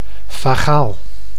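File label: "vagaal", Dutch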